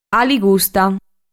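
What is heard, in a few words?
"Aligusta", Sardinian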